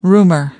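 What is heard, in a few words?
"rumor", English, British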